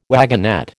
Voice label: "wagonette", American

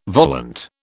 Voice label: "volant", British

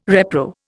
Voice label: "repro", Indian

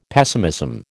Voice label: "pessimism", American

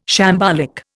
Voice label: "shambolic", Indian

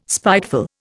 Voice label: "spiteful", Indian

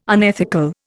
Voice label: "unethical", Indian

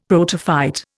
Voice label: "protophyte", Indian; Dictionary

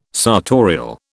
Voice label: "sartorial", British

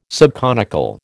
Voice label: "subconical", American

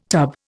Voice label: "tub", Indian

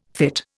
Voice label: "fytte", Indian

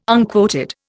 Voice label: "unquoted", Indian

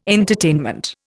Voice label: "entertainment", Indian

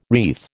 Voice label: "wreath", American

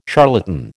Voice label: "charlatan", American

